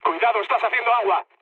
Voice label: damaged water, mood med, speech; War Thunder